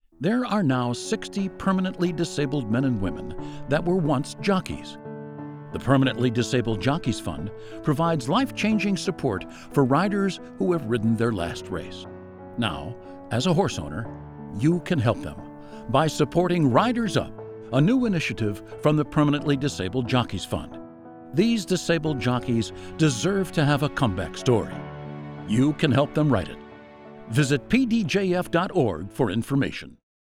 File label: PSA